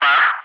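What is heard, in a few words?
"Perth", English, ATC Callsign; DCS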